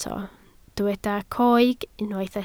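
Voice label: "coeg" Welsh, spoken word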